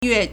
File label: "yue4", Chinese